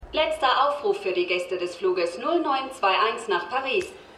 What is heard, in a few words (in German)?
Flughafen Durchsage; Serienfuehrer Die wilden Neunziger USA